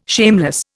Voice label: "shameless", Indian

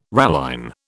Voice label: "ralline", British

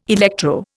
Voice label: "electro", Indian

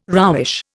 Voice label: "roughish", Indian

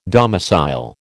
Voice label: "domicile", American

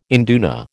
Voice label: "induna", American

